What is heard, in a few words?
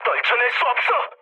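Korean aircraft damaged mood medium; War Thunder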